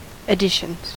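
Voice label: "additions", US English; pronunciations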